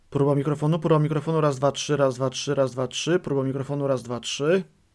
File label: maono